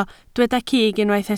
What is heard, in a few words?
"cig", Welsh